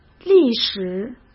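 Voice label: "历史" Mandarin Chinese; hsk; chinese-flashcards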